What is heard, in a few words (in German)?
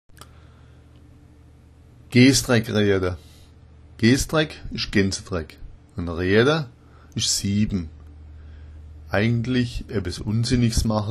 geesdreck, schwaebisch